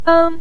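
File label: "beng" Mandarin Chinese, neutral tone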